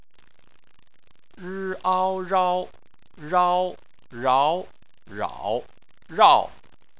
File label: "rao", chinese